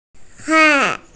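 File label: "hai4", Mandarin Chinese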